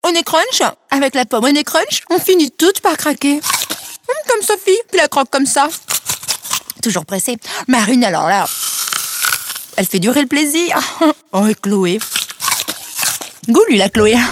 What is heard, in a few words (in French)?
comedie; Honney crunch